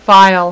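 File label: "file", female, voice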